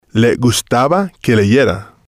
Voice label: "le gustaba que leyera", spanish